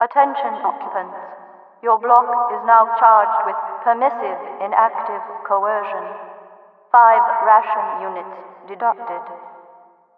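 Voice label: ration units deduct, speaker; overwatch; cityvoice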